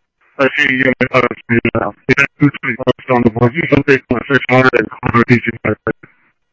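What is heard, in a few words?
Mesa Police Department, scanner audio; MESA PD Superstn MesaPoliceDep; Mesa Simulcast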